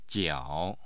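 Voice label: "jiao", Mandarin Chinese, tone 3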